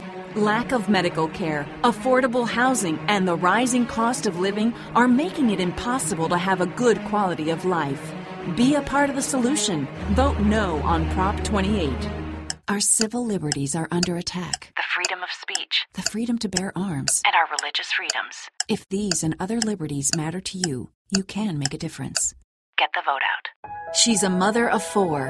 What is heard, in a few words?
Political English